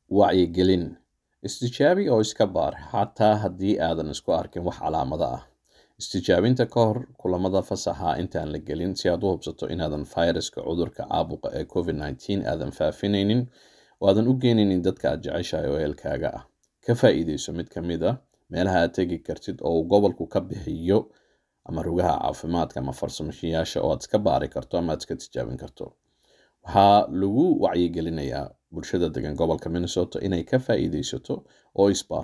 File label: psa announcement